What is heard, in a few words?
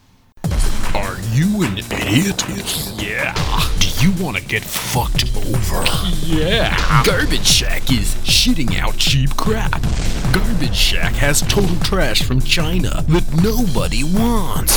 Commercial; Garbage Shack